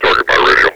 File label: target my radial, combine soldier; Half Life 2